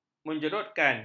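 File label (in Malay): "menjerutkan", Malay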